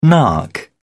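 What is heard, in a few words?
"nark", British English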